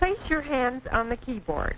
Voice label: place hand on keyboard, Quirky Female Typing Instructor; VOCALS and SPOKEN WORD